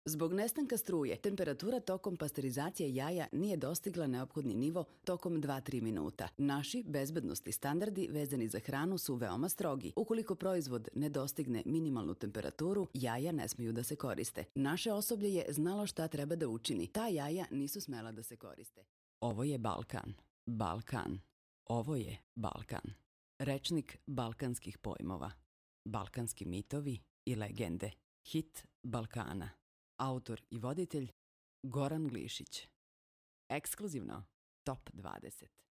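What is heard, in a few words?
Serbian, female; Professionelle Sprecher und Sprecherinnen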